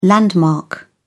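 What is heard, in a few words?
"landmark", British English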